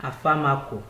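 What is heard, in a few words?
"afamako", Nigerian Pidgin, male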